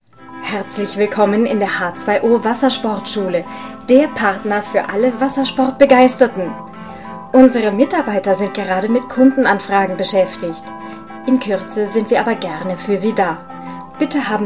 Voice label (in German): telefonansage